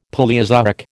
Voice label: "Palaeozoic", American